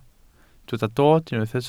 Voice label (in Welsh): "dod", Welsh